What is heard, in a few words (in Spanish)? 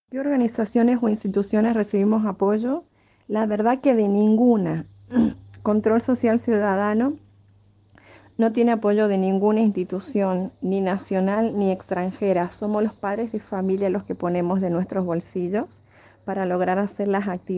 entrevista; bolivia provida